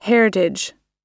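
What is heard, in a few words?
"heritage", English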